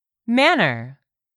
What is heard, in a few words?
"manner", English; 3000 words